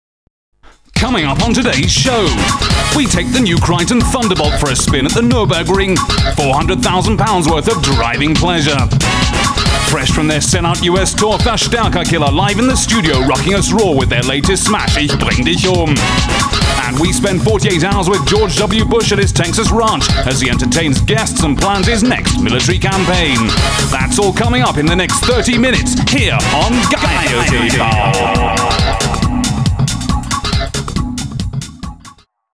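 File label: British advert, englisch; werbung; sprecher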